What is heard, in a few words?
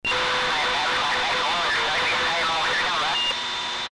battle radio communication